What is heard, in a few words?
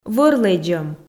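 "вӧр лэдзӧм", Komi, female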